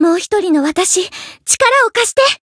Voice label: Voice variable chant jp

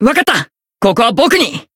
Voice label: chant jp

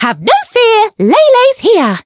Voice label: variable chant; lele

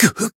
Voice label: Voice damaged, jp; claude

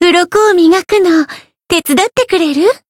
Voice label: win, jp; pizzica

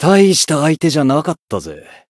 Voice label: win, jp

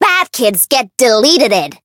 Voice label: chant

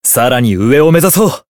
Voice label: growth jp

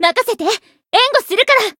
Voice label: variable chant jp

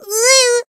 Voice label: damaged, jp

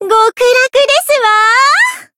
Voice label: healed, jp; sincere

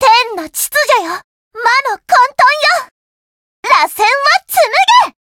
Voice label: limit break, jp; suzette

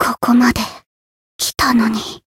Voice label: voice jp; ruina

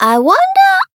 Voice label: variable chant

